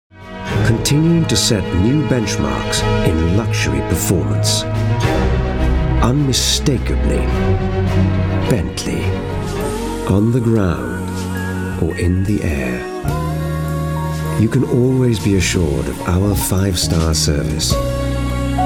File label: Commercials